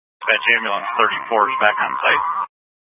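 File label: Incident Tactical 3, Scanner audio recording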